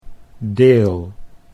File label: "deel", Dutch